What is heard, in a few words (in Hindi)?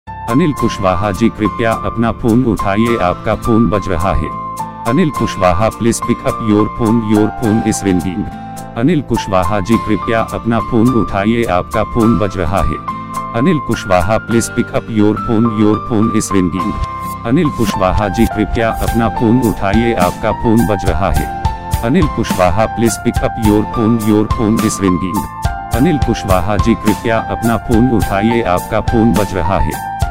Apka Phone Baj Raha Saying